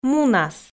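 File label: "мунас", Komi, female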